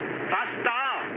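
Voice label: German near waypoint mood low, speech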